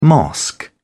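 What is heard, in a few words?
"mosque", British English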